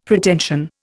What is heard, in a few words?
"Pretension", Indian; Dictionary